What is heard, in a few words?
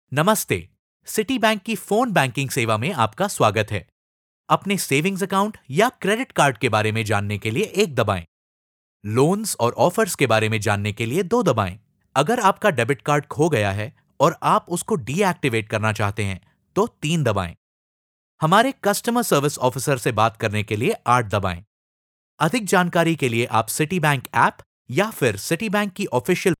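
Hindi Male IVR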